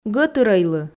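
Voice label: "гӧтырӧйлы", Komi, female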